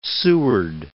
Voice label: "Seward", English